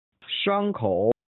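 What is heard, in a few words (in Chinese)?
"伤口" Mandarin Chinese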